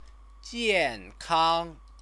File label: "jiankang", Chinese; 健 康; healthy; stative verb; Chinese lessons